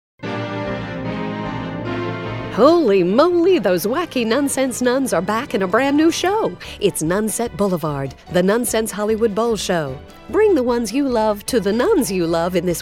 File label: Radio Spot